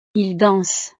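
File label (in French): "ils dansent", French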